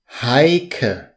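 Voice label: "heike", German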